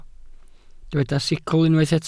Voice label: "sicl", welsh